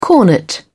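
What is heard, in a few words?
"cornet", British English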